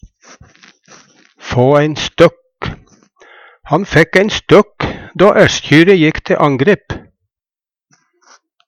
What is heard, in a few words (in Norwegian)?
"få ein støkk", Numedalsmål; skvette, kvekkja